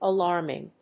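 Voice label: "alarming", English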